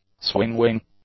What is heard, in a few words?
"swing wing", english; nouns